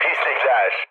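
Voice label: Turkish enemy detected, mood low, speech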